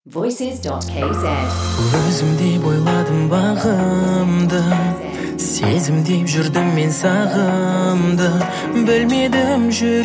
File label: vocal kz